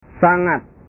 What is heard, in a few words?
"sangat", bahasa